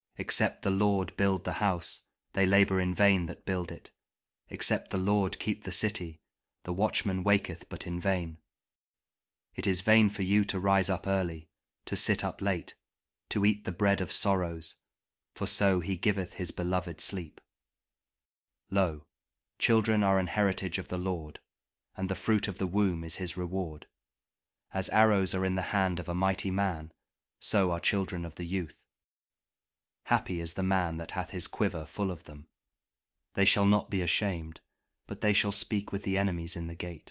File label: psalm kjv king james audio bible